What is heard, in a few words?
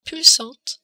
"pulsante", french